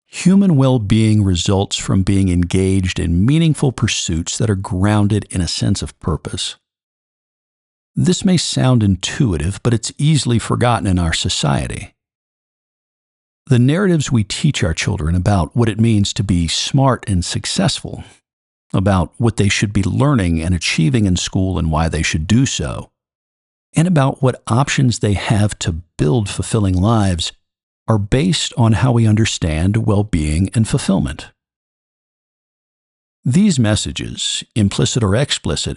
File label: Nonfiction Audiobook Authoritative, Informative